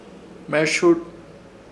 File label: "Hmeshurz", Ishir Chamacoco